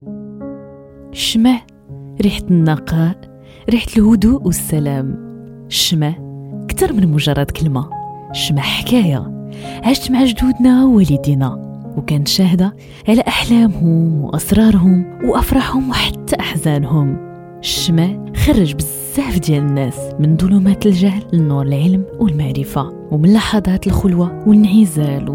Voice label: Spot bloom candles